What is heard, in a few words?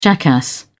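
"jackass", British English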